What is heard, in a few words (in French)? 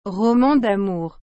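"roman d'amour", French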